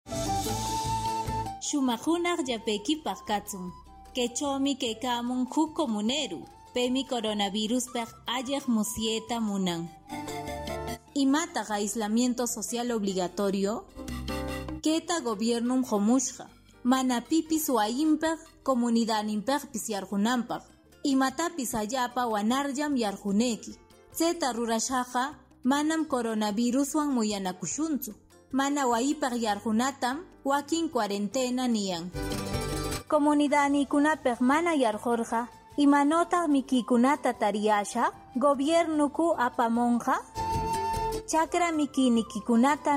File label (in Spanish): quechua ancash, spot de radio; preguntas y respuestas sobre coronavirus; que es el coronavirus